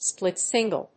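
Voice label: "split single", English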